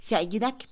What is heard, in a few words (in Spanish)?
agradecer, Mixe, Mujer; Habla; INALI